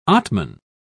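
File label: "atman", English, uk British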